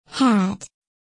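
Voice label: "hat", English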